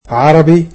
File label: "Arabic", egyptian